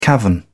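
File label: "cavern", British English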